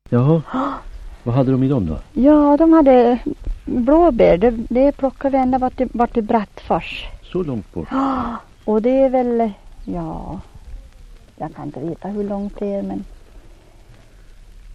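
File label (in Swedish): Hed Västmanland, svenska sagor dialekter; Folkminnen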